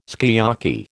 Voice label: "sukiyaki", American

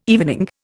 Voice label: "evening", Indian

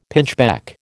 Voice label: "pinchbeck", American

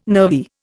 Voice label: "nervy", Indian

